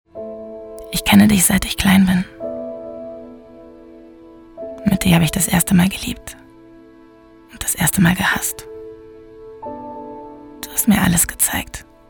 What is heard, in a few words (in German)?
Schauspiel Sanft